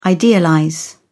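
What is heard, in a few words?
"idealize", British English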